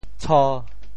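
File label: "tsho5", Teochew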